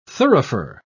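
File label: "thurifer", British English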